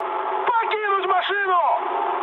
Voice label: bailout, mood high, speech ru; War Thunder